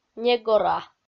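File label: "негора", Komi-Zyrian, female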